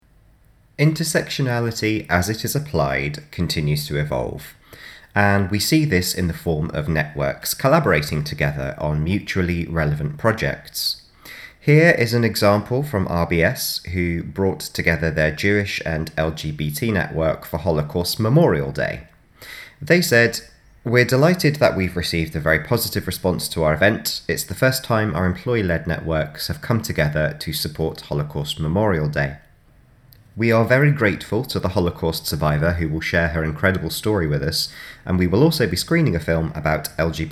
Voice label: Narration; Radius; Widening Your Network